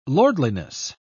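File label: "lordliness", British English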